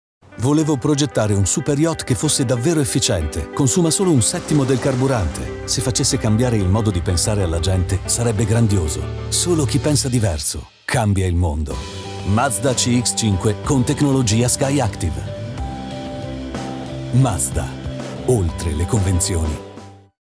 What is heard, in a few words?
Italian; European, Italian, Male, 20s-30s